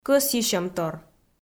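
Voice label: "кӧсйысьӧмтор", Komi, female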